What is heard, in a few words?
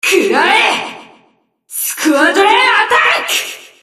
Enemy Voice Damaged Attack; Abyssal Coral Sea Water Demon